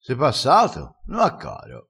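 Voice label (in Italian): "ave a caro", vocabolario dialettale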